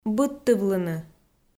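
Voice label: "быдтывлыны", Komi, female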